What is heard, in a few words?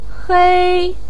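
"hei1", Mandarin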